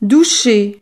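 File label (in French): "doucher", French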